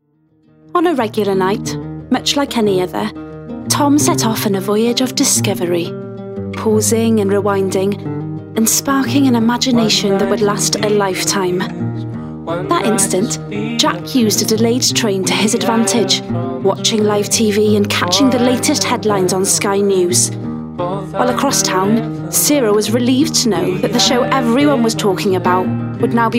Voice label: female English Welsh TV narration; sky; devine voices